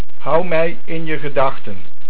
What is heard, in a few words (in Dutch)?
"Gedachten", Dutch